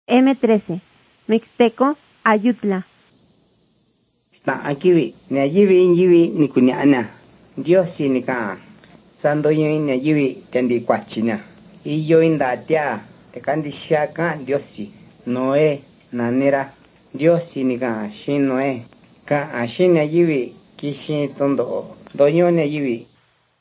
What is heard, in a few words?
mixtec diagnostic spanish mexico